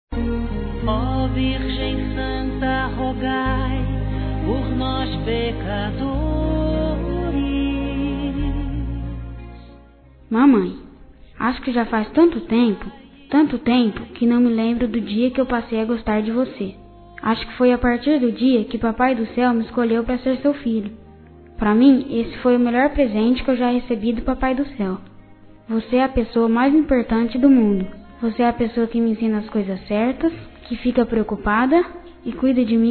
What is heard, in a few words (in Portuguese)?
Aniv. Mãe infantil Religiosa Masculino; Telemensagens 2017; Aniversários